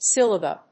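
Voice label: "sillabub", English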